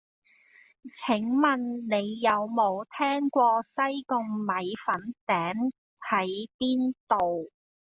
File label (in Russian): Гонконгский